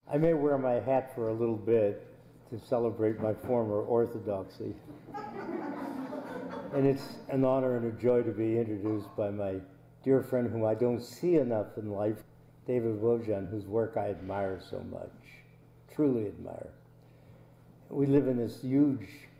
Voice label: reading